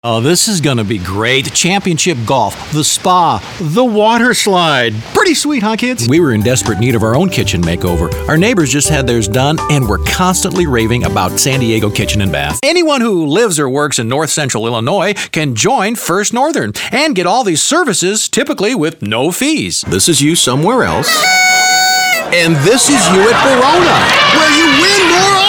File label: voiceovers male